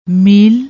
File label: "meel", Punjabi